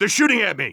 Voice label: radio taking fire; vo; Counter Strike Model Pack; CS:GO; phoenix coop